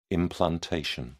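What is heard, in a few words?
"implantation", British English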